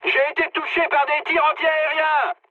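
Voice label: damaged aa, mood high, French; War Thunder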